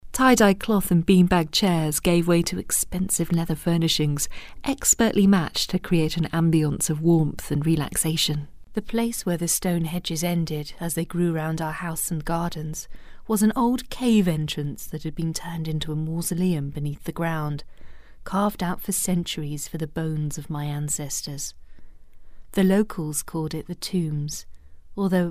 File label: Storytelling